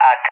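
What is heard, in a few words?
"at" English, speech; DCS; Allied Flight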